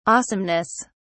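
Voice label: "awesomeness", British English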